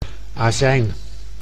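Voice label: "azijn", Dutch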